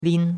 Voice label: "lin1", chinois; Cours de Chinois

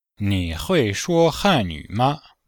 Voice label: Phrases types, chinois; Cours de Chinois; séquence 4-4

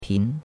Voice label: "pin2", chinois; Cours-de-Chinois

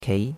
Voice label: "kei2", chinois; Cours-de-Chinois

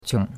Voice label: "qiong3", chinois; Cours de Chinois

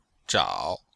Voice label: "zhao3", chinois; Cours de Chinois

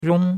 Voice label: "rong1", chinois; Cours-de-Chinois

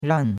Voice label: "ran4", chinois; Cours-de-Chinois

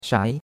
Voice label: "shai2", chinois; Cours-de-Chinois

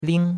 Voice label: "ling1", chinois; Cours-de-Chinois

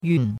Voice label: "yun4", chinois; Cours-de-Chinois

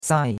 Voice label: "sai4", chinois; Cours-de-Chinois

